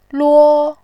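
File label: "luo", Mandarin, tone 1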